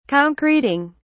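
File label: "concreting", British English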